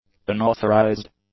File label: "unauthorized", English; adjectives